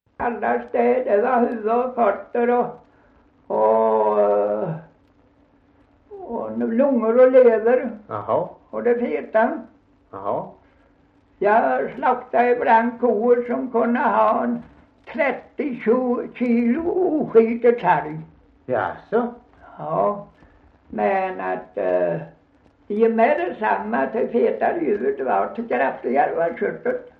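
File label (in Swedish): Gunnarp Halland, dialekter svenska sagor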